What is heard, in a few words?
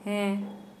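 Santali, female, yes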